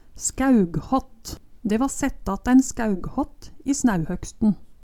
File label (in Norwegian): "skaeughatt", Numedalsmål